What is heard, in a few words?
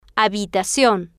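"habitacion", Spanish, female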